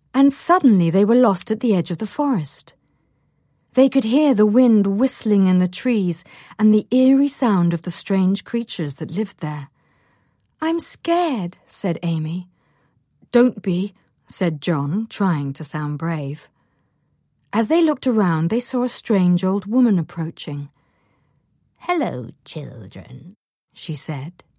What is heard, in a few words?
Toys Animation Storytime